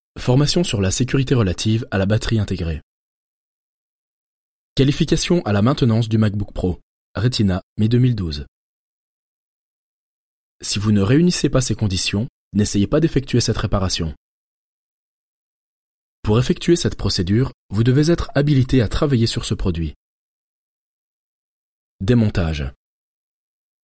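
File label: French M Elearning, male; voice over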